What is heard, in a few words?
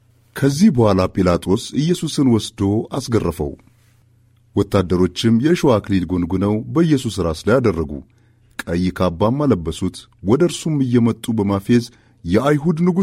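Amharic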